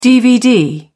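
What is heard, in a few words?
"dvd", British English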